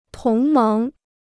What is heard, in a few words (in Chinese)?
"同盟", Chinese